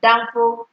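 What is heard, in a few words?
"banfo", Nigerian Pidgin, female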